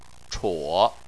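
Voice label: "chuo" third tone, Chinese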